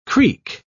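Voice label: "creak", English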